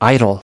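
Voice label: "idol" US English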